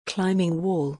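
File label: "climbing wall", English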